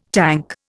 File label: "tank", Indian